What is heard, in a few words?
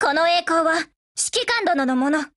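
WIN Japanese, Voice; GF Alarm; MAT49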